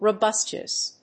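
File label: "robustious", English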